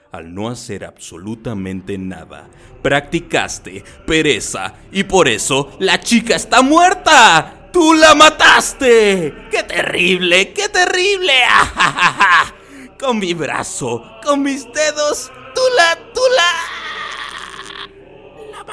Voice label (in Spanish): Actuada musicalizado, locutor